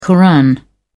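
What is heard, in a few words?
"koran", British English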